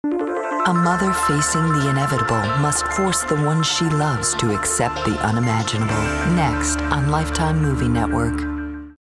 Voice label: caring concerned